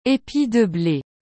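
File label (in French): "epi de ble", French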